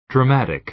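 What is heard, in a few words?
"dramatic", American English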